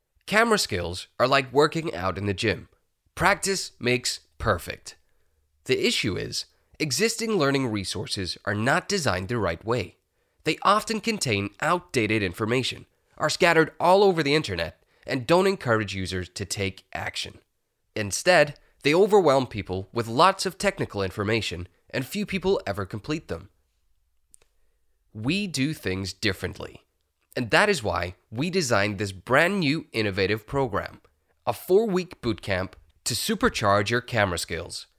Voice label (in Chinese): 外籍英式男